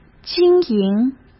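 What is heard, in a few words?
"经营", Mandarin Chinese; chinese flashcards; hsk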